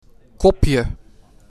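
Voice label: "Kopje", Dutch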